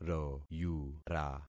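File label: "royura", concatenated syllables; Affixing experiment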